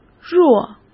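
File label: "若", Mandarin Chinese; chinese-flashcards; hsk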